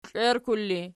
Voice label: "klierklul", archi